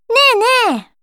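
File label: story, Japanese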